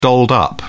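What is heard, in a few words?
"dolled up", British English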